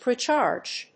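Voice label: "precharge", English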